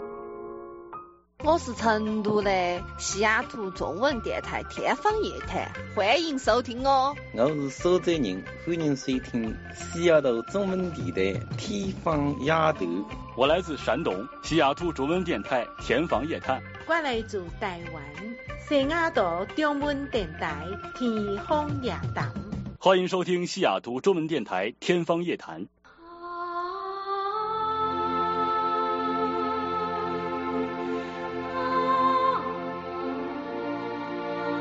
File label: chinese ancient; chinese dialects